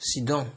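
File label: "sidon", Nigerian Pidgin, male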